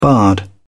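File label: "bard", British English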